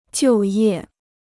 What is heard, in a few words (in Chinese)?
"就业", Chinese